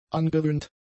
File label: "angewöhnt", German; adjectives